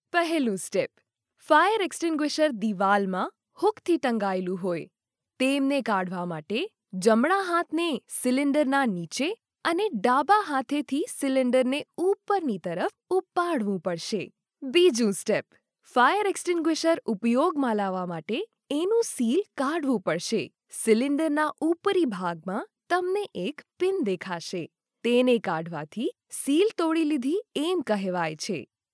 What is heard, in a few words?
female gujarati voice over narrative